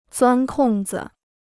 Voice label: "钻空子", Chinese